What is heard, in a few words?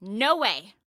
Command Declined, Female